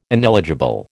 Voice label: "ineligible", American